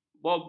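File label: "bobrok", Malay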